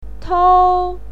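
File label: "tou", Mandarin Chinese, first tone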